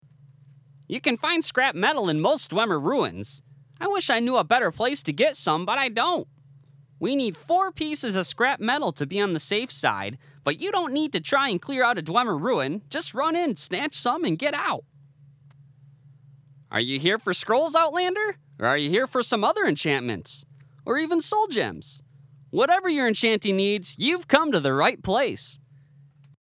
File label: male wood elf annoying audition, voice acting